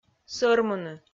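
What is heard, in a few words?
"сӧрмыны", Komi, female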